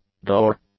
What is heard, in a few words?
"door", English; nouns